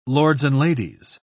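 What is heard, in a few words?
"lords-and-ladies", British English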